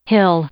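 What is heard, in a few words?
"hill", English; noun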